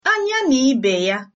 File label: long time no see, igbo